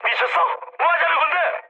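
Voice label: Korean player killed ally mood med, speech; War Thunder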